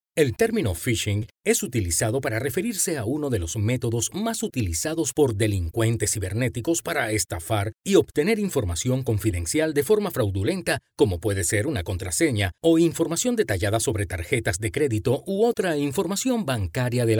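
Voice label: Spanish Latin America male voice over, narrative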